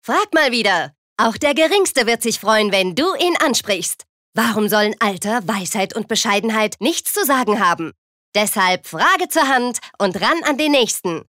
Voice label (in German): Werbung Dynamisch